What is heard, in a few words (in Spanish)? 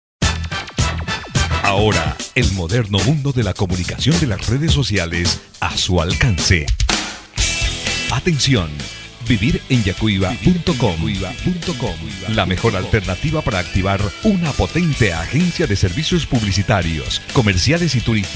anuncio radio; vivir en yacuiba